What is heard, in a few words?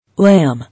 "Lamb", English